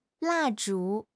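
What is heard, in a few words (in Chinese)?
"蜡烛", Chinese; HSK6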